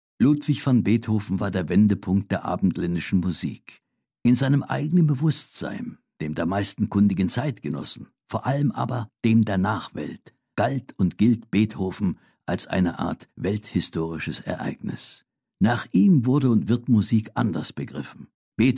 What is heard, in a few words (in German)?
kommentar